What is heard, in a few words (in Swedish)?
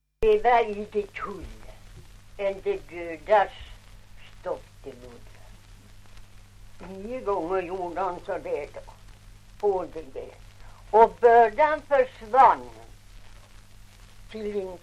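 Ovansjö Gästrikland, svenska sagor dialekter; Folkminnen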